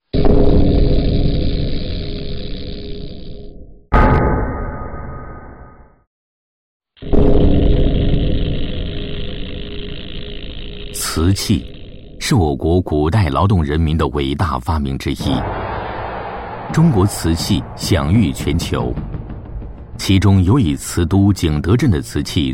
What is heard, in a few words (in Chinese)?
男, 记录片, 瓷器; 历史沧桑 自然诉说